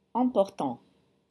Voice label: "emportant", French